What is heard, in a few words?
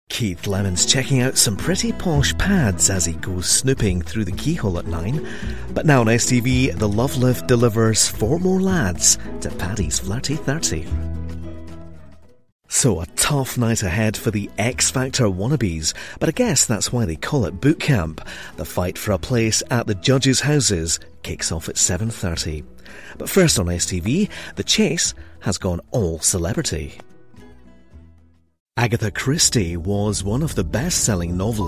continuity